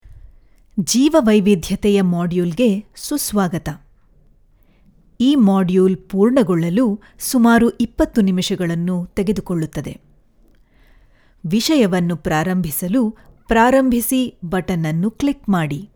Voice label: Kannada; TVS

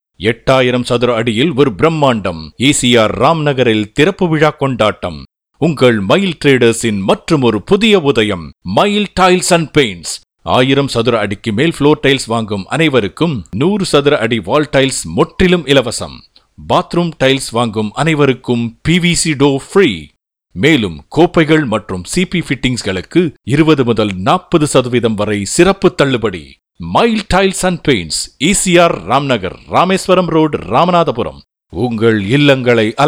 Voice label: TAMIL AD

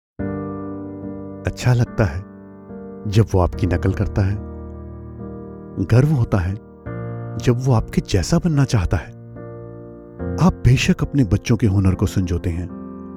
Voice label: TVC Hindi; LIC

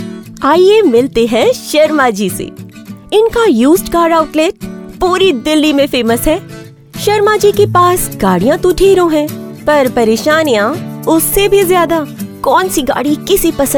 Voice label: Explainer Video Hinglish; HINDI; Young Adult Female Middle Age

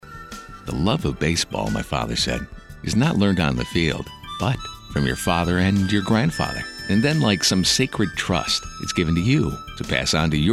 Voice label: corporate

